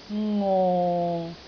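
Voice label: "ngo6", Cantonese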